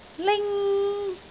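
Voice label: "ling1", Cantonese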